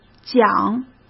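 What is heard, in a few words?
"讲", Mandarin Chinese; hsk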